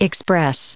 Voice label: "expressed", us

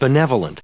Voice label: "benevolent", en us

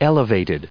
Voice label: "elevated", us

